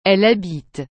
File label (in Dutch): "elle habite", frans; habiter